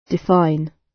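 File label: "define", British; Language, linguistics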